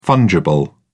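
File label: "fungible", British English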